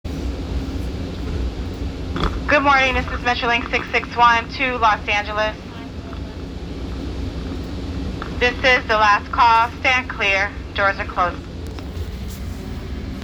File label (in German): Metrolink Durchsage; USA